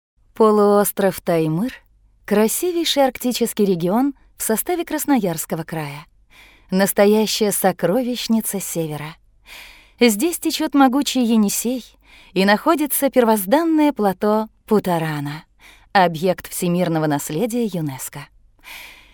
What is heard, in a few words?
female, Russian documentary